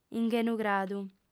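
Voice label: "inghenugradu", female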